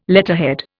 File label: "letterhead", Indian